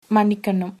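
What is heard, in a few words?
excuse me, tamil, female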